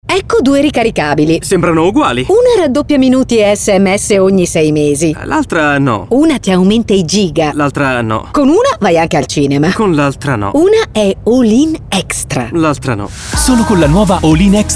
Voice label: spot radio